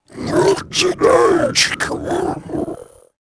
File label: trando vox, creatures; Star Wars Obi-Wan; XBOX